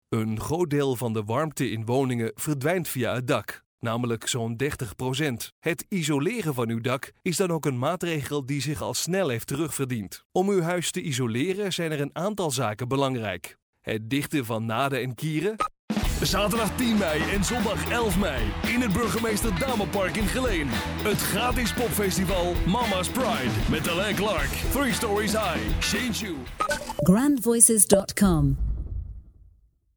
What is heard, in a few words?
DUT M